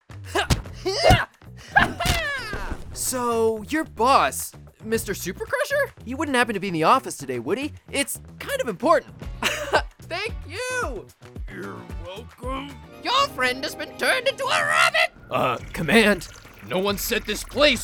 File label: Animation